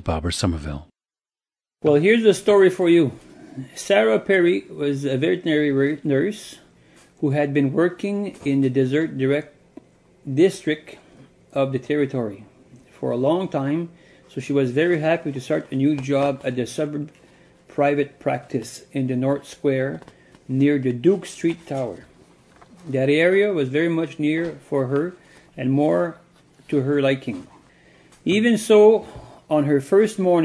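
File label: ontario, International Dialects of English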